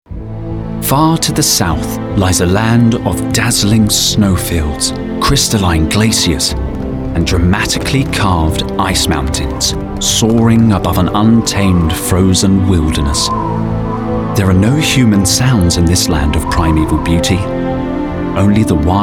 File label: Documentary